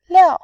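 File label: "liao4", Mandarin Chinese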